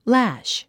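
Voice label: "lash", English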